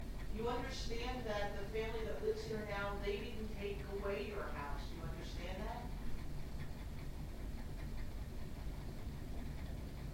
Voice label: you know owners didnt take the house clear yes womans voice